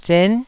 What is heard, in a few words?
"zen9", taiwanese syllables